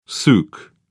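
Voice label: "souk", British English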